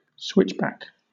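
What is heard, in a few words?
"switchback", British English; pronunciation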